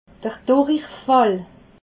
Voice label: "durichfall", alsace

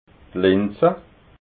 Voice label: "linsa", alsace

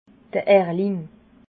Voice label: "erling", alsace

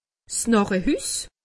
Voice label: "narrehus", alsace; medecine

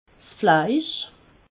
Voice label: "fleisch", alsace

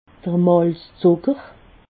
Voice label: "malzzucker", alsace

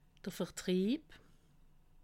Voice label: "vertrieb", alsace

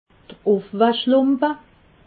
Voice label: "ufwaschlumpe", alsace

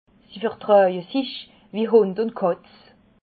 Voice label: "hund", Alsace

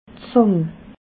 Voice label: "zang", alsace

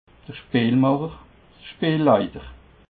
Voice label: "speelmacher", alsace

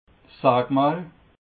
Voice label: "sag mahl", alsace

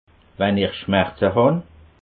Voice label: "schmerze", alsace

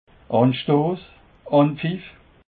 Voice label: "anstoss", alsace

